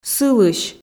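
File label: "сылысь", Komi, female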